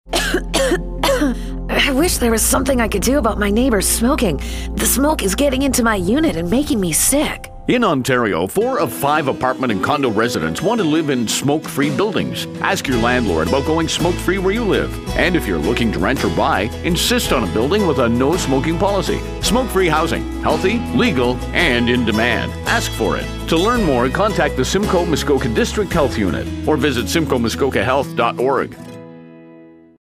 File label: tenant ad; SMDHU